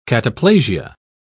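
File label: "cataplasia", British English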